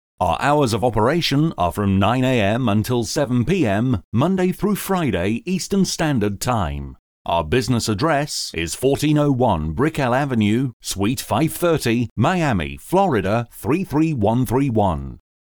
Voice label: English - England - Male; VoiceBunny